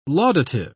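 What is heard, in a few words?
"laudative", British English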